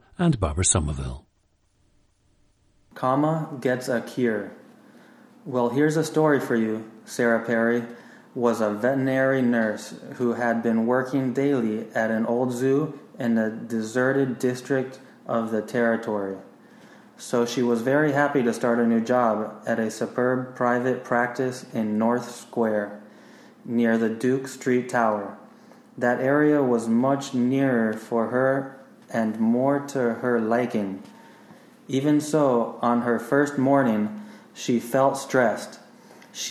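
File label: florida, International Dialects of English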